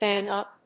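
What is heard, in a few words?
"bang-up", English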